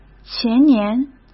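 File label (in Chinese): "前年", Mandarin Chinese; hsk; chinese flashcards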